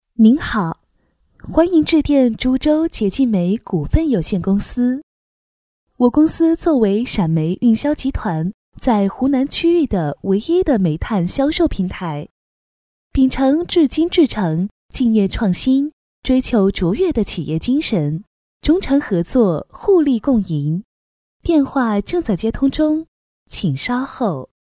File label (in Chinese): 女 彩铃 洁净煤; 株洲